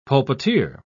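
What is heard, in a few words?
"pulpiteer", British English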